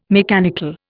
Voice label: "mechanical", Indian

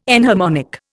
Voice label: "enharmonic", Indian

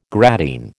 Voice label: "gradine", American

